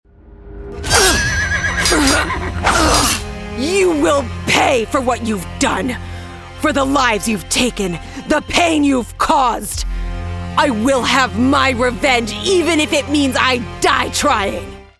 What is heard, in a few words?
vengeful warrior; video games animation